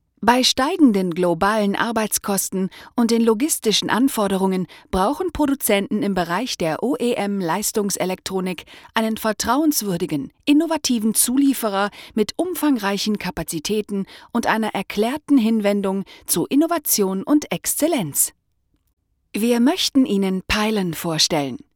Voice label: german corporate videos